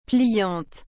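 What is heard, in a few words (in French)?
"pliante", French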